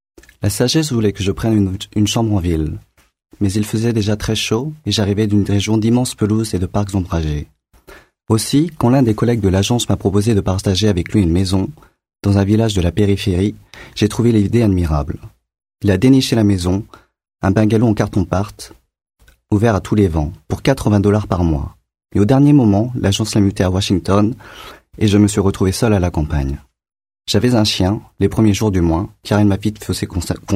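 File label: French, male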